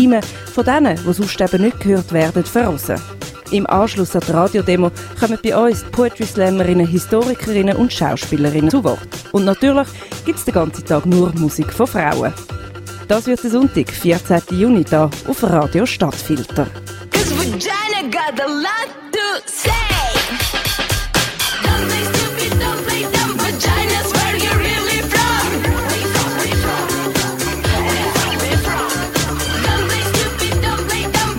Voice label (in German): trailer frauenstreik